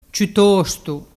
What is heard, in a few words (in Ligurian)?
"ciuttostu", dialettu